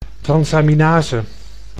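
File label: "transaminase", Dutch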